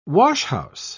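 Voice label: "washhouse", British English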